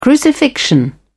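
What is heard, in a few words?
"crucifixion", British English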